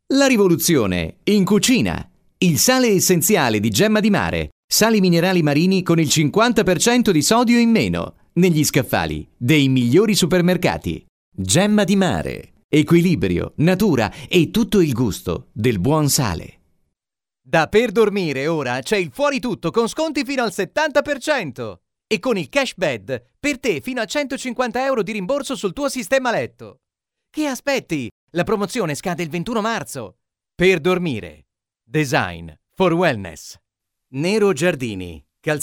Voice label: italian commercial